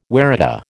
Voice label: "waratah", American

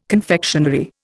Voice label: "confectionery", Indian

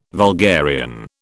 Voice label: "vulgarian", British

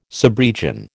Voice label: "subregion", American

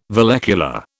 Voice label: "vallecula", British

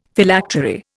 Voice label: "Phylactery", Indian; Dictionary

